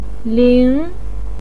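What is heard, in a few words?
"ling", Mandarin pinyin, second tone; 灵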